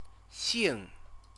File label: "xing", Chinese; CTCFL; University of Oxford